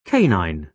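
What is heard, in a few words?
"canine", British English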